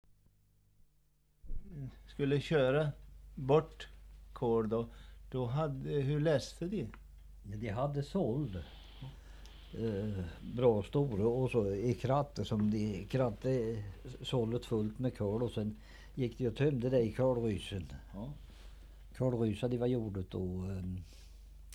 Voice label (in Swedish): dialekter; Folkminnen